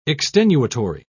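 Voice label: "extenuatory", British English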